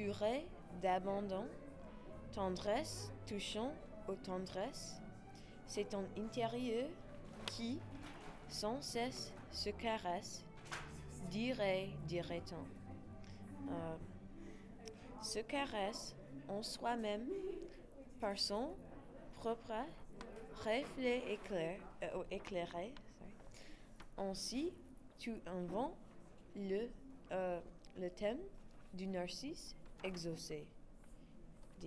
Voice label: French pronunciation